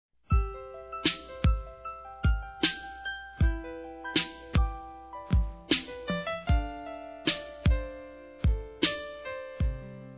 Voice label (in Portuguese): Picante Masculino; Telemensagens